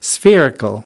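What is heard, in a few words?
"spherical", spoken word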